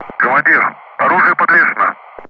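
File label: Speech Sound Russian Common Ground Crew Messages; rearming complete; DCS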